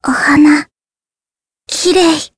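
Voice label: vox Japanese; Jane; kingsraid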